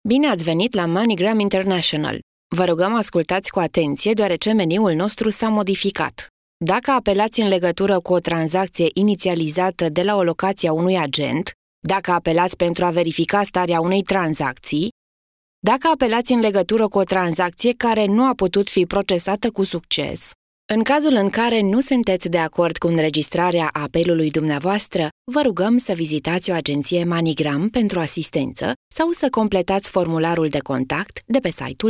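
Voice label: Romanian, female, IVR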